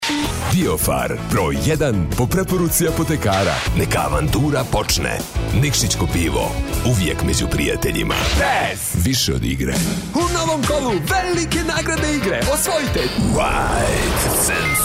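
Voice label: serbian male commercial voice; voice demos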